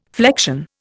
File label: "flection", Indian